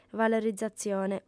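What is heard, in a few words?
"valorizatzione", Sardinian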